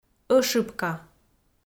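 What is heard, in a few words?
"ӧшыбка", Komi, female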